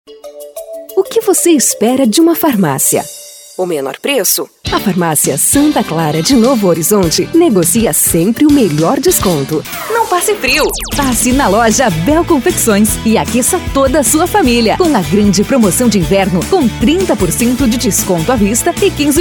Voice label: locutora

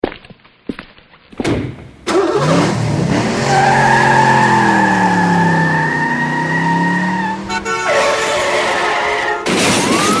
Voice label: spot paz no trânsito velocidade

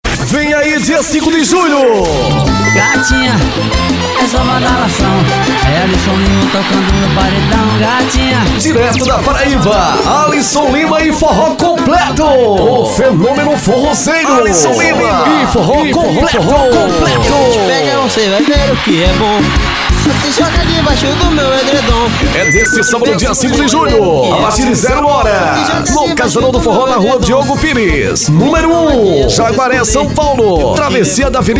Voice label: spot festa

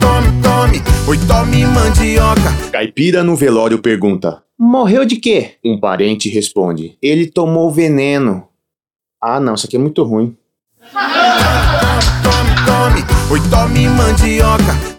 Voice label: spot piada; rei da mandioca

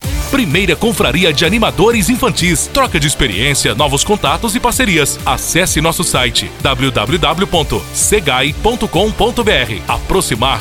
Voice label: VOZ IMPACTO GRAVE vinheta; para confraria de animadores infantis

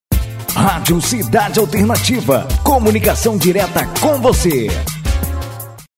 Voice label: locutor vinheta

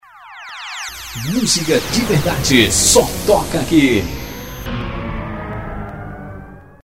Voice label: vinheta música di verdade só toca aqui; produção gilmar brito

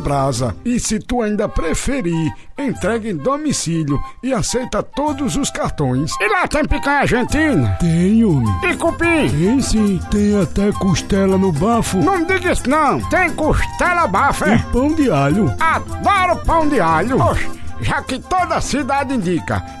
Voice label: spot restaurante; hitiban; timbauba

